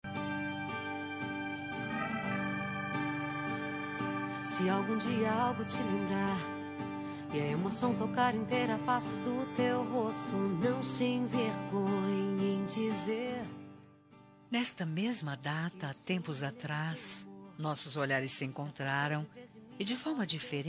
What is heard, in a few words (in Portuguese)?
namoro fem; Aniversário de Namoro – Voz Feminina; Telemensagens para todas as ocasiões